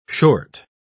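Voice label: "short", English word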